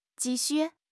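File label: "ji4 xu4", Chinese; HSK4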